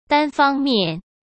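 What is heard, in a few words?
"单方面", Mandarin; chinese-flashcards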